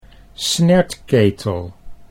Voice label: "snertketel", Dutch

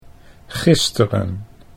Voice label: "gisteren", Dutch

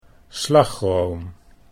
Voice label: "slagroom", Dutch

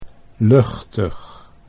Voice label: "luchtig", Dutch

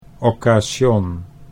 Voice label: "occasion", Dutch

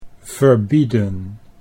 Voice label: "verbieden", Dutch

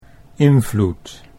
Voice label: "invloed", Dutch

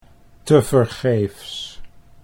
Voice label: "tevergeefs", Dutch

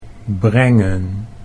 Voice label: "brengen", Dutch

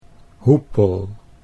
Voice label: "hoepel", Dutch

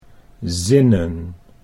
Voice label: "zinnen", Dutch

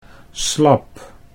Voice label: "slab", Dutch

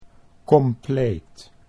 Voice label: "compleet", Dutch

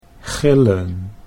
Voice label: "gillen", Dutch; 'to shriek'

